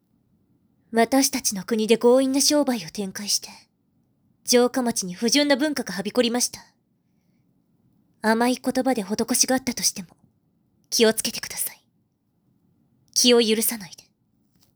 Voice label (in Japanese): 注意喚起